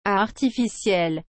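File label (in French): "artificielle", French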